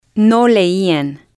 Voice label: "no leian", spanish